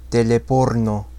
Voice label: "Teleporno", Telerin